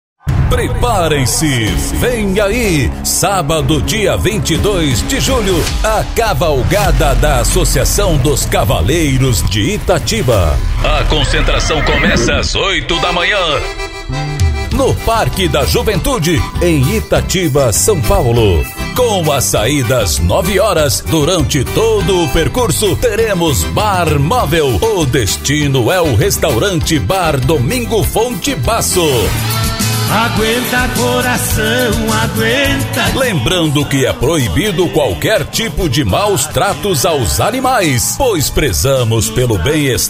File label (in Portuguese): LOCUTOR